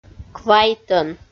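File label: "квайтӧн", Komi, female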